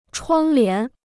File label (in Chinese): "窗帘", Chinese